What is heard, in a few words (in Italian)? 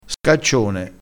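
"scaccione", dialettale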